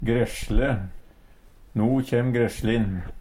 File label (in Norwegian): "gresLe", Numedalsmål